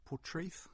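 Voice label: "Portreath" English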